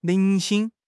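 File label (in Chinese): "零星", Chinese; HSK6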